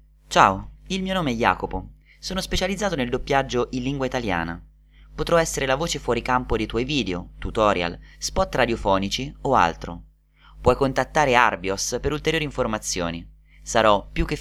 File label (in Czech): italský dabing